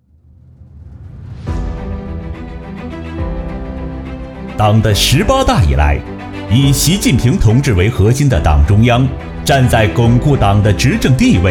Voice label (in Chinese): 男 警示